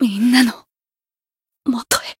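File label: Voice retire, Japanese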